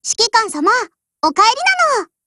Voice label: HELLO JP; GF Alarm; M9